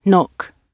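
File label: "knock" British English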